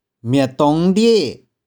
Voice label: "mih4tung1die1", 玉環

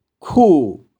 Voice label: "khu2", 玉環

